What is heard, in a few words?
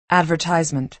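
"advertisement", American English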